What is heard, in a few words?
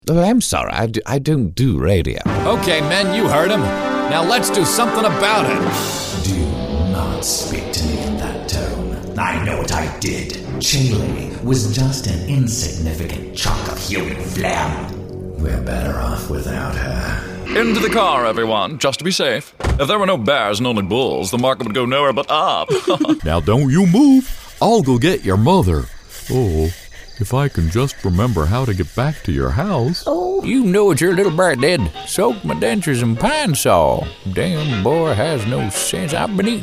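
character voice over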